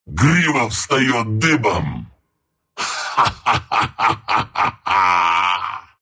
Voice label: level up, ru; dota2